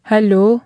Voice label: hello, greenlandic; Haluu; hello on the telephone